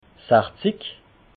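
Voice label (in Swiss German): "sachzig", Alsatian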